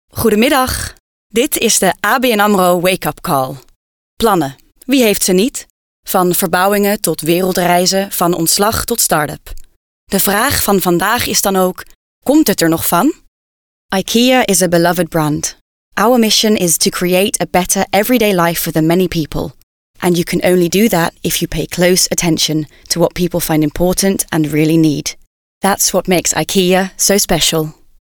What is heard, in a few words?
NL UK